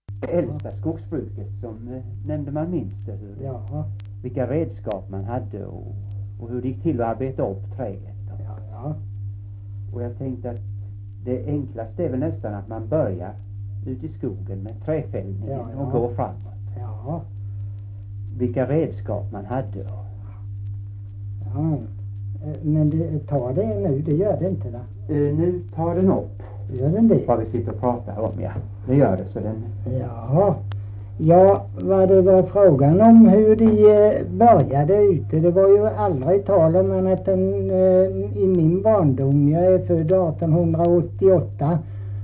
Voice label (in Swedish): Kristianopel, dialekter